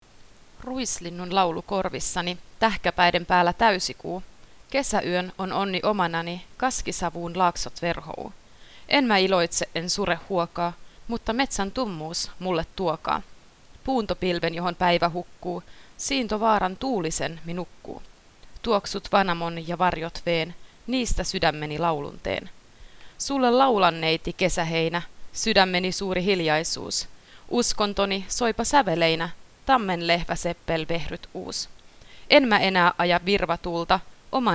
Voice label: finnisch

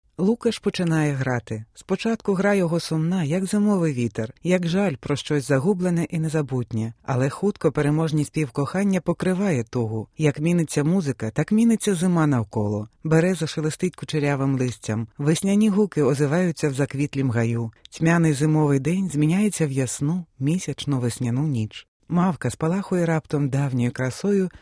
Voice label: ukrainisch

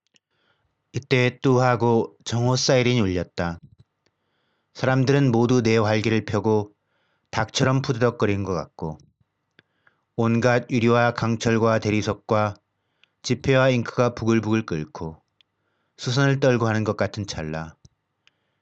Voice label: koreanisch

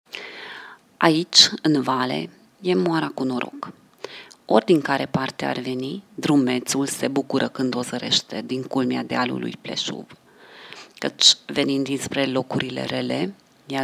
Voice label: rumaenisch

